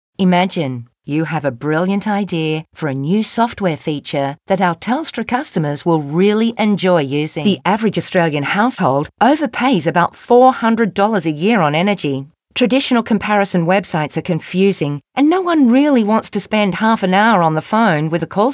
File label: Natural